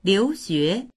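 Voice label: "liu2xue2", Mandarin Chinese; 留学; 留学（する）; dictionary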